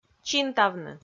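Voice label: "чинтавны", Komi, female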